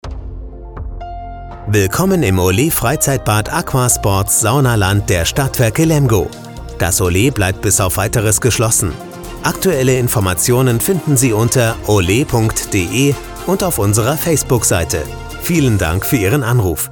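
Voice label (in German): Sprecher für Telefonansage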